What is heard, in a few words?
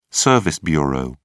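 "service bureau", British English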